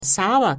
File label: "sauwer", Pennsylvania Dutch; Vowels - dipthongs; ou in house